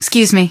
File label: "excuse me", female; npc; Garry's Mod; hl2